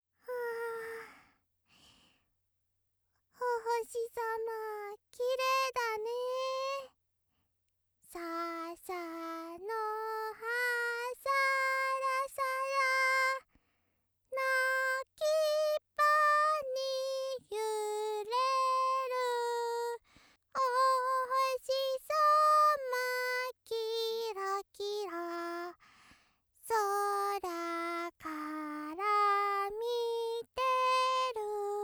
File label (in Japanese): ロリ 七夕だね